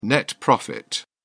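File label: "net profit", British English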